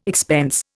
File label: "expense", Indian